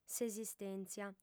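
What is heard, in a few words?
"s'esistèntzia", Sardinian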